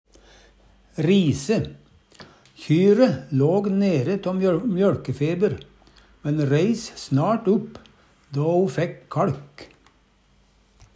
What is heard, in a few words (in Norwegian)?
rise, Numedalsmål